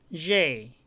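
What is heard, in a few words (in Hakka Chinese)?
"rhe1", 客語 海陸腔; 弛*; 蝓; 掖; ❋部分地區讀為rhe。; ❋弛：群體移動意。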